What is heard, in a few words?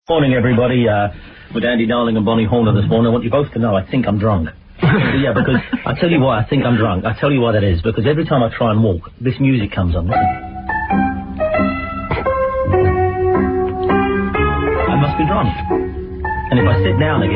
drunk